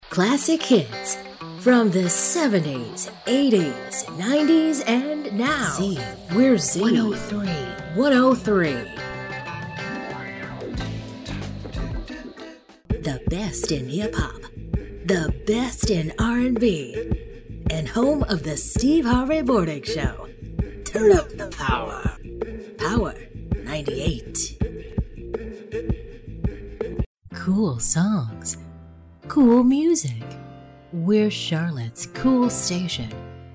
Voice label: Voice Talent Imaging